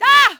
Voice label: ENG; Dialogue; Cars; XBOX